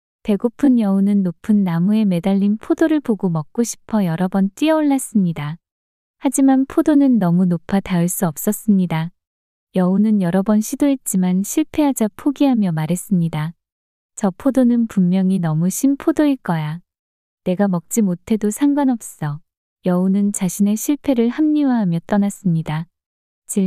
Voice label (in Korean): 배고픈 여우 이야기 여자